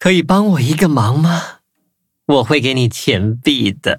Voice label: touch, zh-hant; Cookie Run

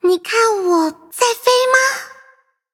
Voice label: touch, zh-hant; Cookie Run

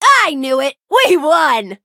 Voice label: victory en; Cookie Run

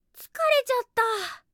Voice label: defeat ja

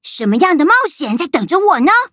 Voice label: loading, zh-hant; cookierun

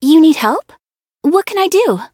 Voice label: loading, en; Cookie Run

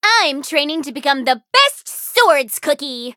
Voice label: menu tap eng; Cream Soda

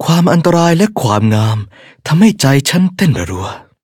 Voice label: level up, th; Cookie Run

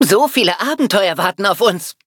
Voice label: loading, de; Cookie Run

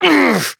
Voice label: defeat, de; cookierunwiki

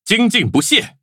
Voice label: level up, zh-hant

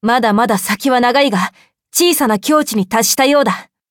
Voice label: levelup ja; cookie run

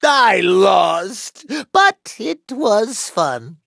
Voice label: defeat, en; cookierunwiki

